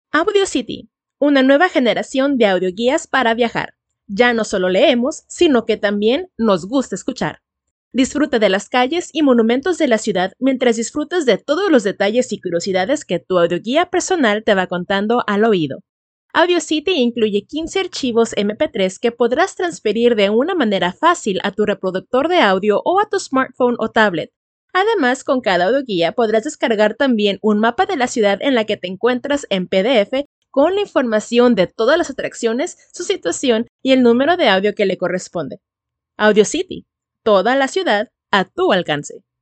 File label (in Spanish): Corporativo, locución; Audiocity